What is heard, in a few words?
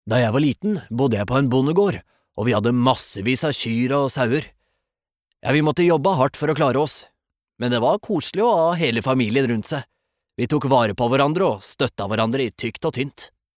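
Norwegian dub man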